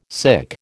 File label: "sic", American